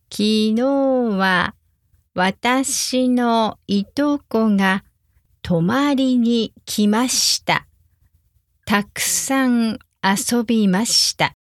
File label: cousin came over slow